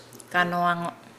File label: "yellow", Nukuoro